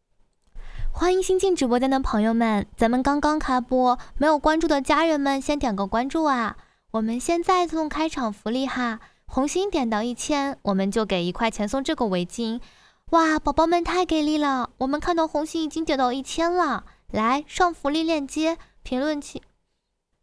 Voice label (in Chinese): 素人带货女主播